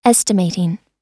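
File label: "estimating", American English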